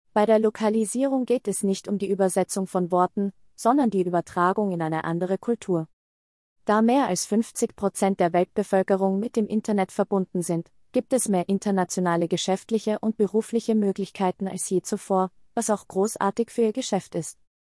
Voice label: german austria female

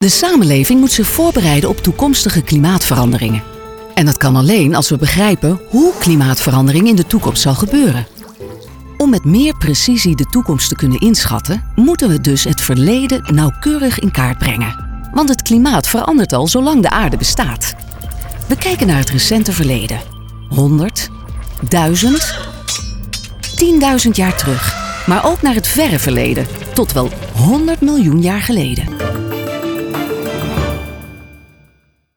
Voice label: dutch female

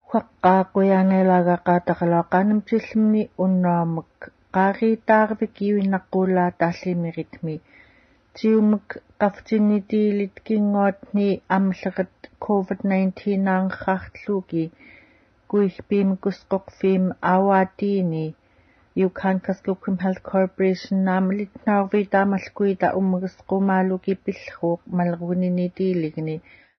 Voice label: yupik female

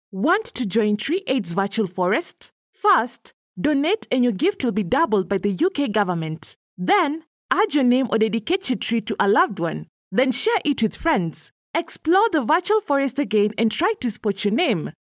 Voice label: accented english female

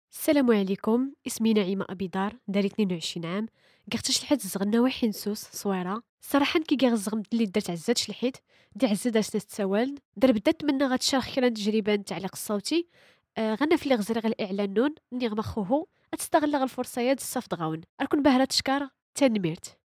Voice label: tamazight female

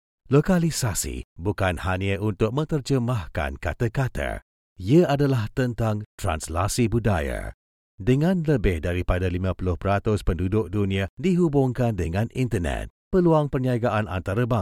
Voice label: malay male

